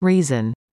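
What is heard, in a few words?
"reason", English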